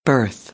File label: "birth", American; AmericanBritish